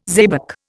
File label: "xebec", Indian